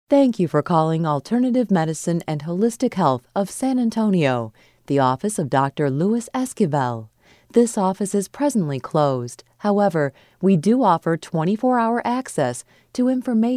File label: On Hold